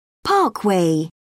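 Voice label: "parkway", British English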